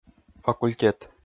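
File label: "факультет", Komi, male; kpv audio dict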